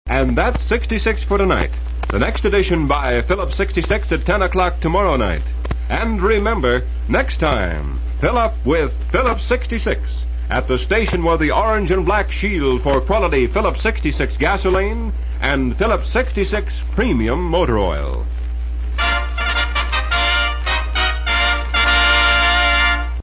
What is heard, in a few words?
News Close; KHQ Spokane; Phillips 66